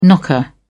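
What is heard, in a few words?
"knocker", English, British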